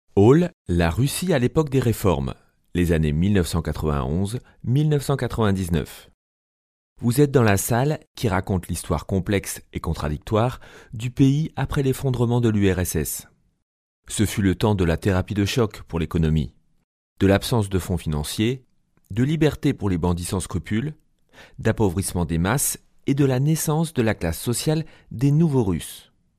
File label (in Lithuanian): VYR Prancuzu balsas, vyras